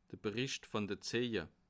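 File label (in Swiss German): "bericht", Bas Rhin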